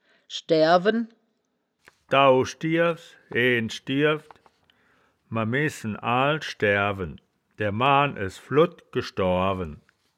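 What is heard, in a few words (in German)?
"schdaeaer wen", wittlicher woerterbuch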